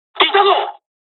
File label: dialog, dialogs japanese; us midway; War Thunder